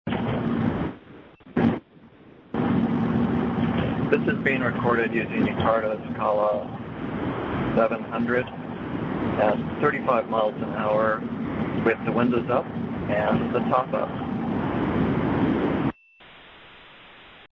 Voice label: Cardo Scala 700 35mph closed, cell phone headsets